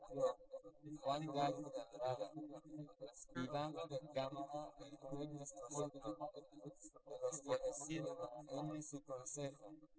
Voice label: male

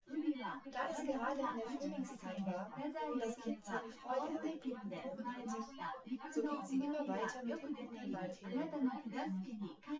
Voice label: female, synthconv, src; SiSEC08; SiSEC underdetermined

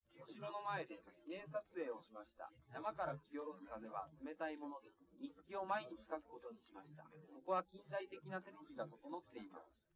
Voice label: male, live rec; SiSEC11; underdetermined

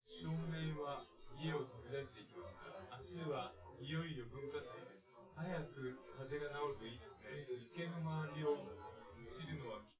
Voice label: male, synth conv, 250ms, 1m

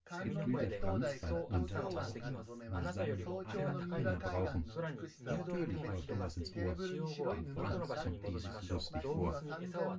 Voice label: male synth conv mix

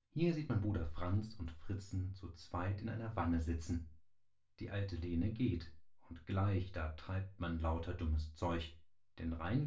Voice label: male synth conv 5cm sim; SASSEC07

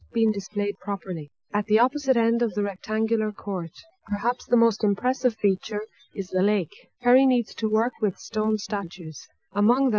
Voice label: female inst src; SiSEC11; underdetermined

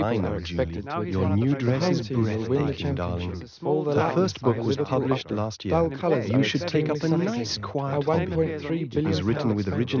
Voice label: male mix; SiSEC10; underdetermined

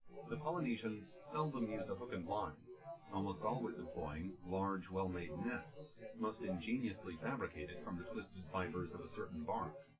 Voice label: male synth conv; SiSEC10; underdetermined

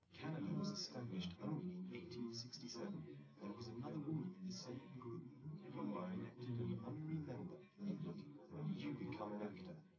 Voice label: male, live rec

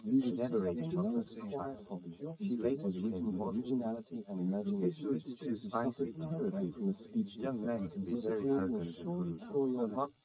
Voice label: male srec 130ms 20cm sim

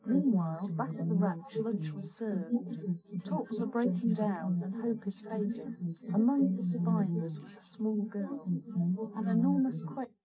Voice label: female, 130ms, 20cm

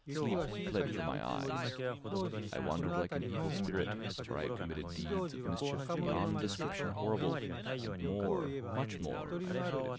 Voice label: male inst mix

